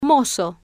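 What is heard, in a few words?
"mozo", female, Spanish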